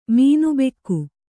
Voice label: "ಮೀನುಬೆಕ್ಕು", Kannada

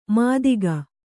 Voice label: "ಮಾತಿಗ", kannada

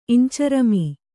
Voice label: "ಇಂಚರಮೀ", Kannada

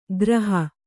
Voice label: "ಗ್ರಹ", Kannada

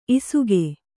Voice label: "ಇಸುಗೆ", Kannada

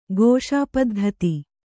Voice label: "ಘೋಷಾಪದ್ಧತಿ", Kannada

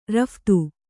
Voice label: "ರಫ್ತು", Kannada

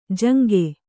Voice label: "ಜುಂಗ", Kannada

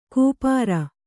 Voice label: "ಕೂಪಾರ", kannada

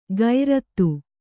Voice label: "ಗೈರತ್ತು", Kannada

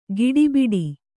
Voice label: "ಗಡಬಡ", Kannada

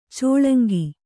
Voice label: "ಚೆಲಂಕು", Kannada

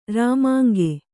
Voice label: "ರಾಮಾಂಗೆ", Kannada

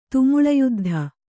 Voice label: "ತುಮುಳಯುದ್ಧ", Kannada

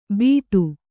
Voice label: "ಬಿಡು", Kannada

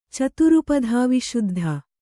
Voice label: "ಚತುರುಪಧಾವಿಶುದ್ಧ", Kannada

